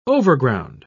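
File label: "overground", British English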